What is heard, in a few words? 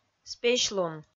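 "спесьлун", Komi, female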